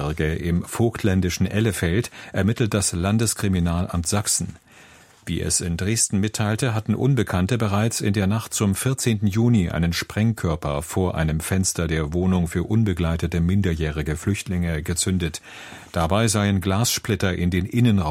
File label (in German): nachrichten; dlf; sprengstoffanschlag